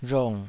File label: "rhong3", taiwanese